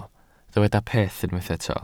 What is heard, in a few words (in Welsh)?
"peth" Welsh